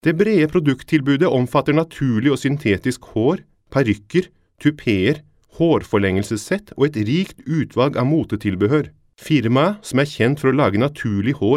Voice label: norvegese

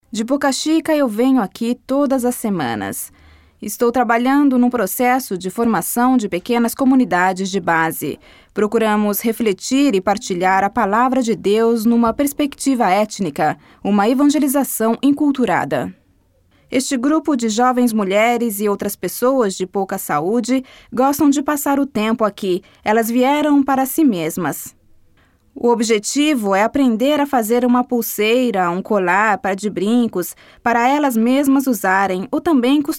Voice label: portoghese bra

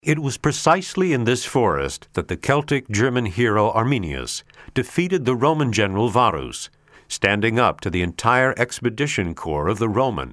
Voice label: inglese usa, demo voci straniere

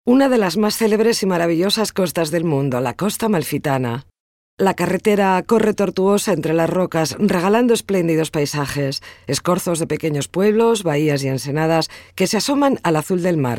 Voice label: spagnolo spa

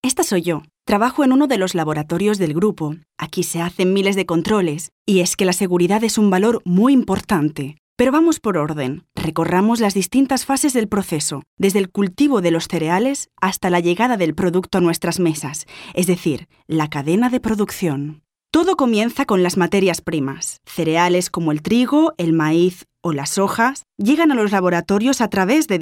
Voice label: spagnolo spa

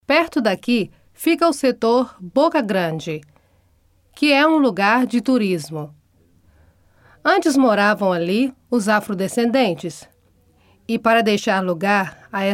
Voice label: portoghese bra

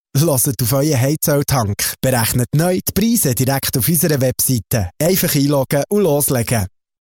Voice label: tedesco svizzero

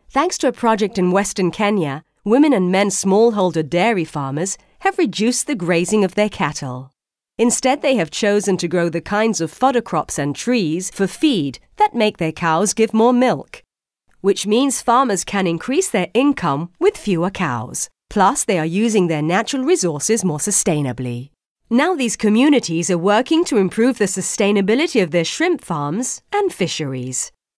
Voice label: inglese uk

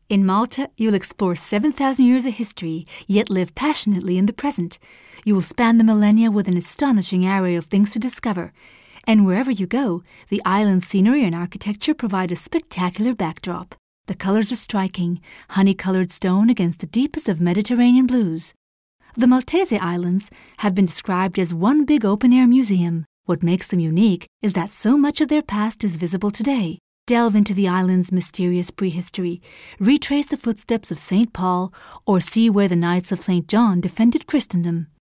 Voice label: inglese usa

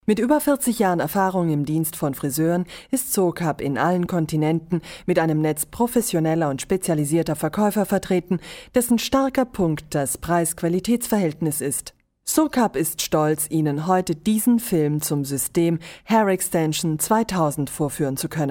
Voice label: tedesco

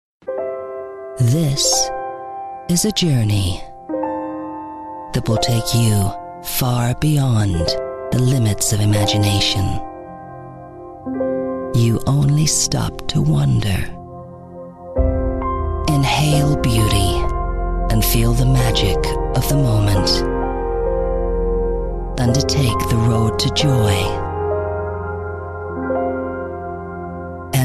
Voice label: inglese ar

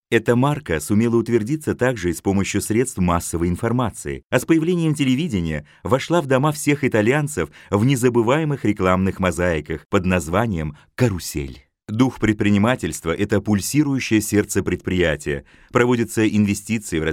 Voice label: russo

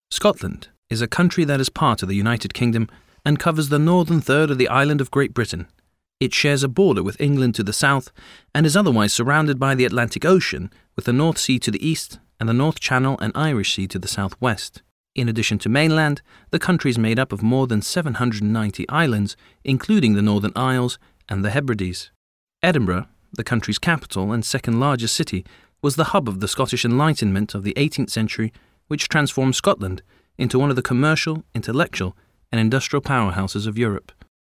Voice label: inglese uk

